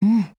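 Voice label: AGREE, Japanese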